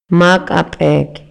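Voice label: Maya, male; RELACIONES POLITICAS Y SOCIALES; INALI; MayaYuc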